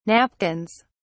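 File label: "napkins", British English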